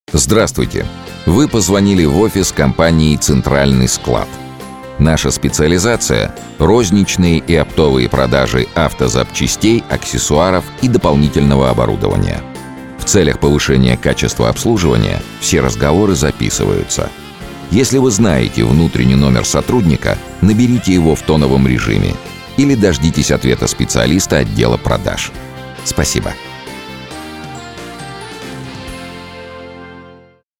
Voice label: golosovoe privetstvie ivr; Avtootvetchik